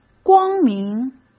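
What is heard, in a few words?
"光明", Mandarin; chinese flashcards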